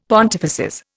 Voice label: "pontifices", Indian; Dictionary